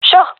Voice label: agree, gendarmerie fem; vo; agents

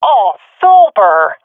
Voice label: cheer, swat fem; vo; Counter Strike Model Pack; CS:GO

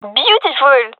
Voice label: cheer, gendarmerie fem; vo; agents

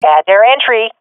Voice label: at enemy spawn, swat fem; vo; Counter Strike Model Pack; CS:GO

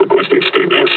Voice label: injured; combine charger; Half Life Alyx

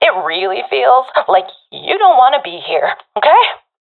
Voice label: sees friend killed, professional fem; vo; Counter Strike Model Pack; CS:GO

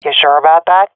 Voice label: disagree, swat fem; Counter Strike Model Pack; CS:GO

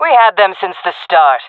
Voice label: round won, fem; player vo; Counter Strike Model Pack; CS:GO

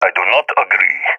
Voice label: disagree, balkan; balkan epic

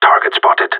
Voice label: radio enemy spotted; Counter Strike Model Pack; CS:GO; gsg9

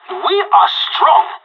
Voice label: on a roll brag, pirate

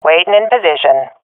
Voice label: in position, swat fem; vo; agents; Source Model Packs; Counter Strike Model Pack; CS2

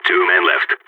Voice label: two enemies left, balkan; vo

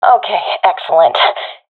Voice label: round winning, professional fem; vo; Counter Strike Model Pack; CS:GO

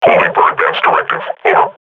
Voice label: combat idle, grunt; Half Life Model Pack

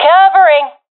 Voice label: covering friend, professional fem; vo; Counter Strike Model Pack; CS:GO

